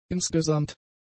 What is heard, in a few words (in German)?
"insgesamt", German; adjectives